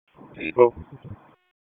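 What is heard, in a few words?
Police Dispatch; wake; p25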